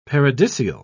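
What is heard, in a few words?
"paradisial", British English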